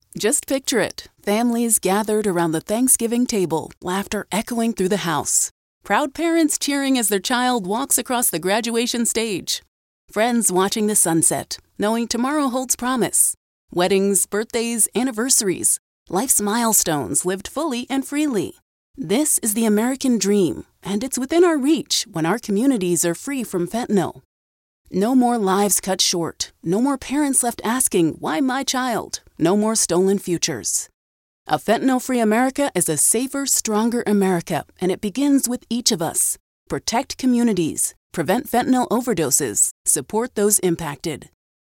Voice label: Radio Dry